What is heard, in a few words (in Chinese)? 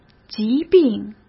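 "疾病", Mandarin Chinese; hsk; chinese-flashcards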